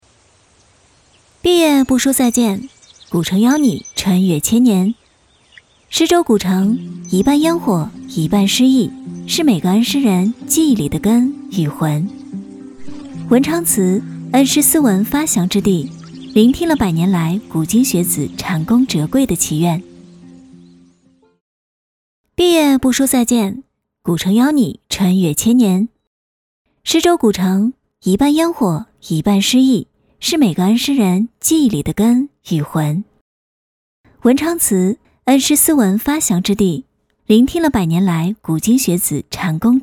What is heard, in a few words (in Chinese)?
女, 毕业不说再见 文旅